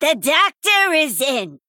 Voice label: Female Goblin